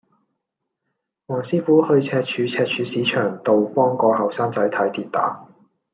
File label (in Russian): Голоса, Гонконгский